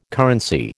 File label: "currency", American